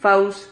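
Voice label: "faus", Spanish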